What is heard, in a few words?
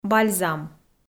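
"бальзам" Komi, female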